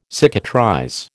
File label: "cicatrize", American